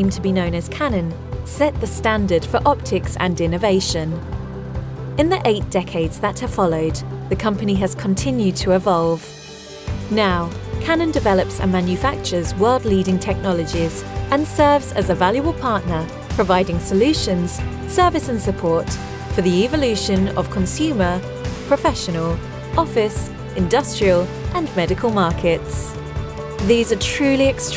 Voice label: Corporate Reel